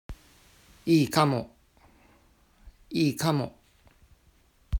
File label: Kamo Japanese Pronunciation